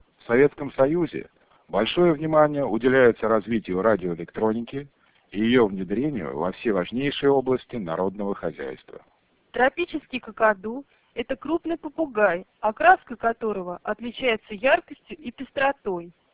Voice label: speech celp 4600